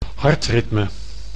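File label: "hartritme", Dutch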